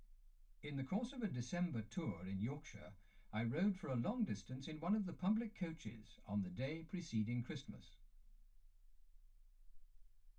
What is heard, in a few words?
male speech english